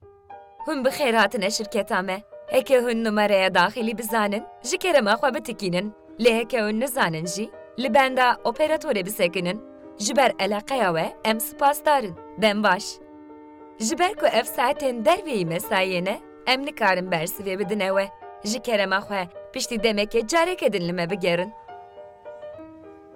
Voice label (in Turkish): Kurtce Kadin Kurmanci, seslendirme; kadin sesler